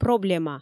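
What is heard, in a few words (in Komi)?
"проблема", Komi, female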